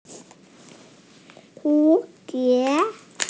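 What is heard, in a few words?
"hu2 die2", Mandarin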